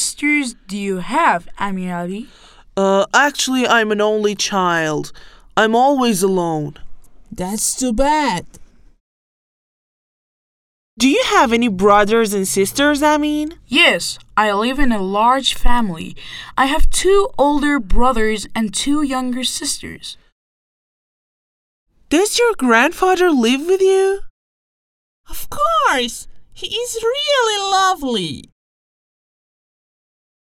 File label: conversation